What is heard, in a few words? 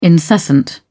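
"incessant", British English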